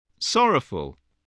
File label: "sorrowful", English voice